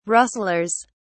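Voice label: "rustlers", British English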